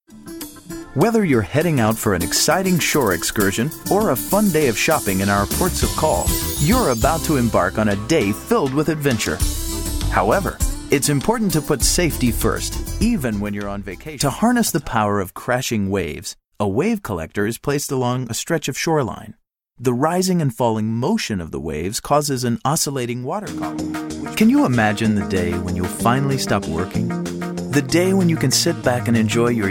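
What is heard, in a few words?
narration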